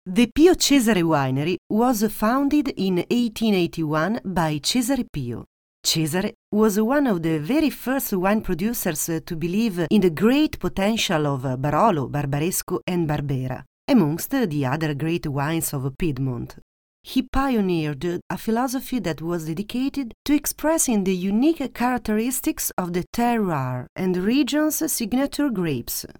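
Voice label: Italian English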